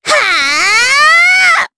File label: Vox Casting jp; Miruru; kings raid